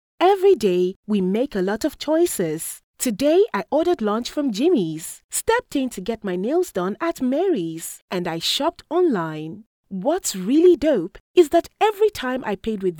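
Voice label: English African Female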